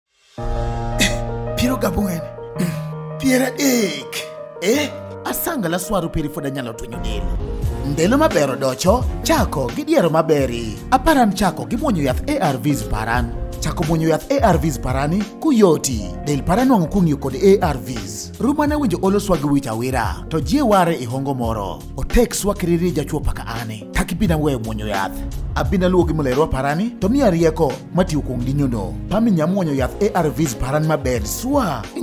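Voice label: HIV Spot Japadhola, radio spot; New on Treatment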